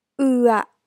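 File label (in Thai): "เอือะ", Thai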